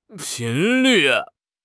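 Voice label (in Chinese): "频率", Chinese; HSK6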